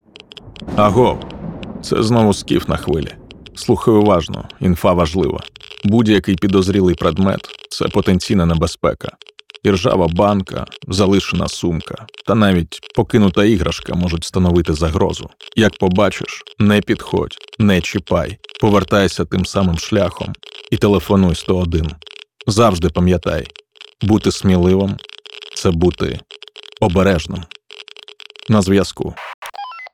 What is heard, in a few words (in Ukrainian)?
Radio; DSNS; SKIF; мінна безпека